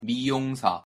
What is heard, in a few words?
"미용사", Korean; pronunciation